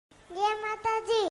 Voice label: baby voice notification; jay mata di